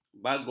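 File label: "bagung", Malay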